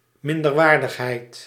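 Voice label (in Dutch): "minderwaardigheid", Dutch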